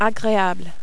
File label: "agreable", French